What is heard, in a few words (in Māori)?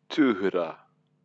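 "tuhura", Māori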